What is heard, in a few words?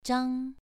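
"zhang", Mandarin Chinese, second tone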